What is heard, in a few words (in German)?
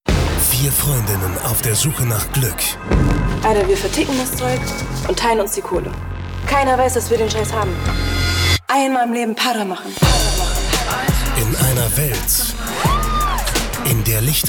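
Trailer, Männer; Para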